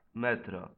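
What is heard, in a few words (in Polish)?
"metro", Polish; multimedia glossaries; transport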